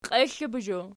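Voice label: "qiexlebozho" nominative, Archi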